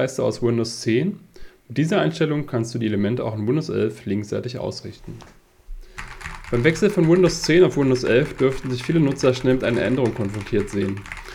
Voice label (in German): Testaufnahme Gaming Mikrofon; Steelseries Alias Pro